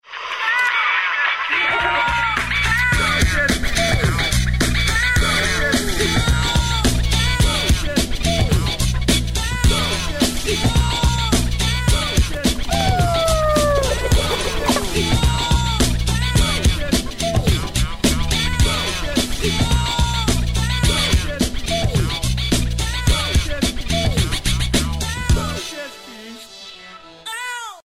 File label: Is Calling Dance, ringtones